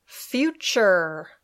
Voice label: "future", English